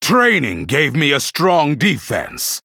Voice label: Male Human Hero Power